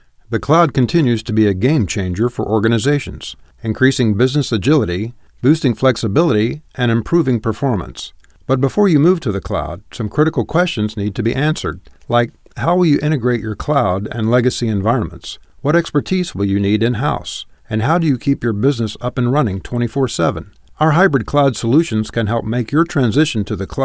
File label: e-learning